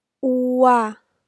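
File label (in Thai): "อัว", Thai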